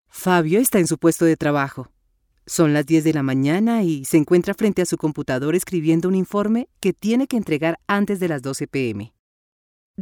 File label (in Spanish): E Learning Estres